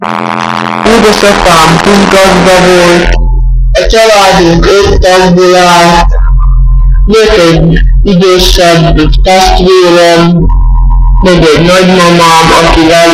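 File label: dialect collection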